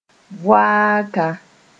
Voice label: "voaaka", moore

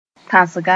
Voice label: "tãsga", moore; yelling

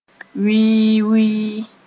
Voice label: "wɩɩ-wɩɩ", moore

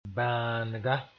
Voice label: "bãanega", moore; fine

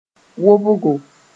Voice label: "wobgo", moore

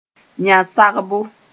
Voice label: "yã-sagbo", moore

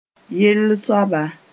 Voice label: "yell soaba", moore

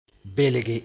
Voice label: "belge", moore

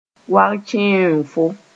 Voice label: "wag-kẽoonfo", Mooré; rock python

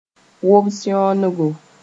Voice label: "wob-sẽonego", moore

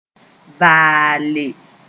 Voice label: "vaale", moore

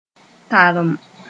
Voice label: "tarem", moore

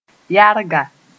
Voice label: "yarga", moore

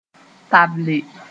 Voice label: "table", moore; stick

